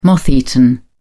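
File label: "moth-eaten", British English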